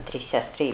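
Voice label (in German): "sestry", Suedrussischen; tri sestry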